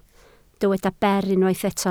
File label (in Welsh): "ber", Welsh